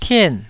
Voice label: "tien4", Taiwanese

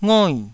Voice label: "ngoi4", taiwanese syllables

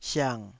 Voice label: "siang3", Taiwanese

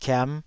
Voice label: "kiam5", taiwanese syllables

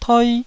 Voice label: "toi1", taiwanese Syllables

